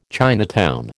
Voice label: "Chinatown", American

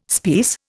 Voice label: "speiss", Indian

